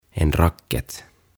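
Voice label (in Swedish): "en racket", Swedish; TennisMaila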